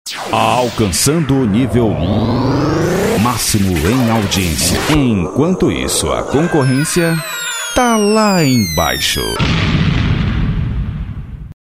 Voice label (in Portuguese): vinhetas para dj e radio